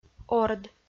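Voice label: "орд", Komi-Zyrian, female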